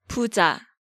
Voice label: "buja", Korean